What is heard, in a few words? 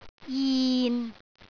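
"jin6", Cantonese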